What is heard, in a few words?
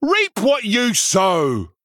Male Human Attack